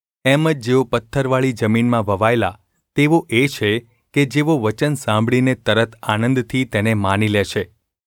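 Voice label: gu ulb mrk, Gujarati; mrk 4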